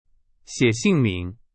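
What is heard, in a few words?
Chinese, example sentence; hsk2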